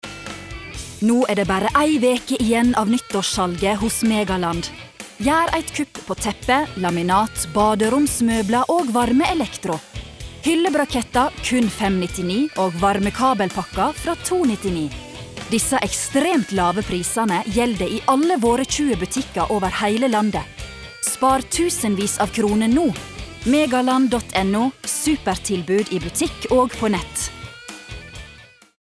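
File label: Norwegian hard sell nordfjordsk nynorsk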